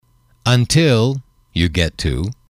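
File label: until you get to, male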